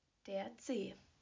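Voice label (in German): "Zeh", German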